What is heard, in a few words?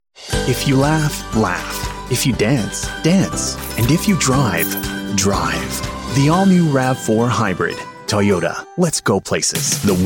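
male, Canadian English, commercial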